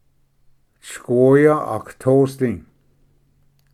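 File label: "sgoya agatosdi", Cherokee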